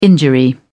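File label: "injury", British English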